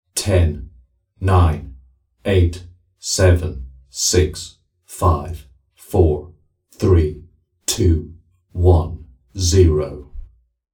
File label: 10 To Zero Male Countdown; Talking Sound Effects; Human Voice Sound Effect; 10 To Zero -Male Countdown - Secret Hq